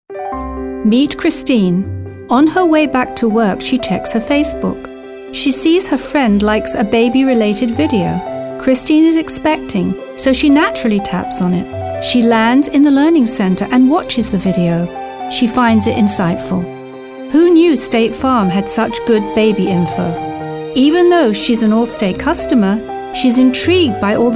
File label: Informative